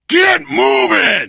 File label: emphatic go, survivor voice